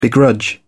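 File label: "begrudge", en, uk British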